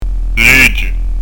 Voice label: "leghje", pronuncia; dizco grammatica

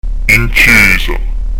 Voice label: "in chjesa", pronuncia; dizco grammatica

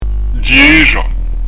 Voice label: "ghjesgia", pronuncia; dizco grammatica

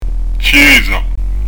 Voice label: "chjesa", pronuncia; dizco grammatica

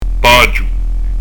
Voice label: "paghju", pronuncia; dizco grammatica

